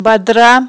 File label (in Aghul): "бадра", aghul